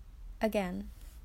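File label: "again", Australian female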